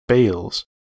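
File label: "bales", US English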